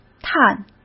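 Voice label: "探", Mandarin Chinese; hsk; chinese-flashcards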